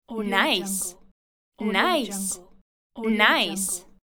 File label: female voice saying nice